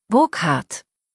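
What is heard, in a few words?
"Burkhard", German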